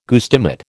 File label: "guestimate", American